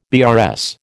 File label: "BRS", American